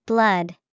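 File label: "blood", English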